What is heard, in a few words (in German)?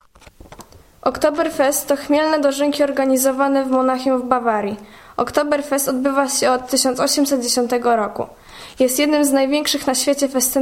polnisch; Oktoberfest